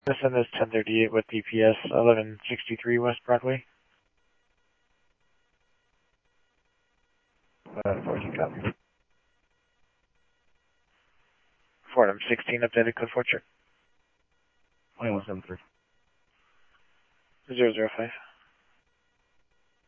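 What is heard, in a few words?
Mesa Simulcast Police Department; MESA PD 1 Fiesta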